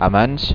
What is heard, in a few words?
"amanc", Occitan